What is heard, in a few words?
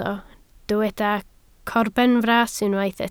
"corbenfras", Welsh